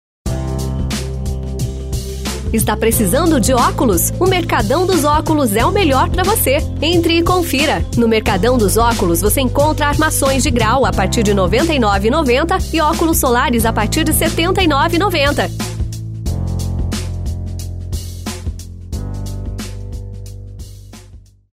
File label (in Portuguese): spot; Rádio Indoor - Óticas; Radio Ambiente